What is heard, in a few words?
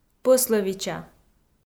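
"пӧслӧвича", Komi, female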